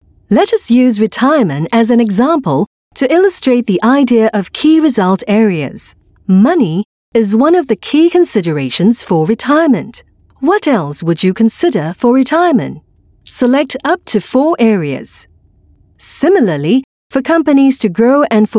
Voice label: Asian English Corporate Learning